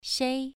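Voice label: "shei" Mandarin Chinese, second tone, pronunciation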